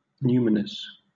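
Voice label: "numinous", British English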